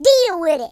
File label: uhoh, speech, Gangsta